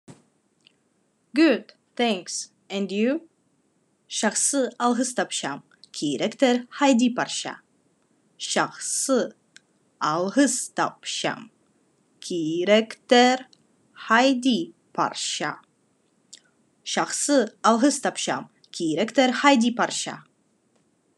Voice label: Khakas Good thanks and you